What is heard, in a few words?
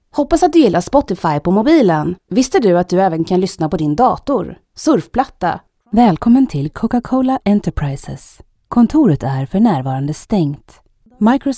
Swedish female